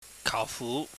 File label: "kauhfu", Cantonese